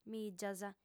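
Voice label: "mìgias", female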